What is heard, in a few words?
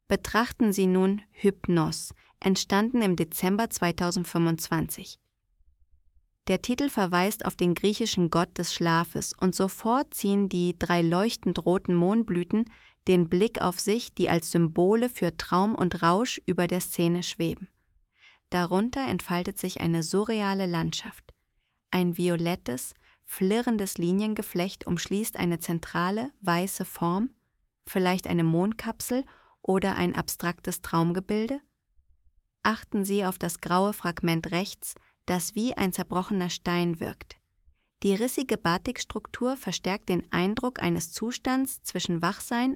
voice audio guide; hypnos